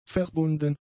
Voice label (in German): "verbunden", German; adjectives